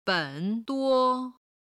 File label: "ben3 duo1", Chinese